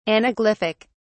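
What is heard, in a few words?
"anaglyphic", British English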